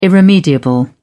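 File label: "irremediable", British English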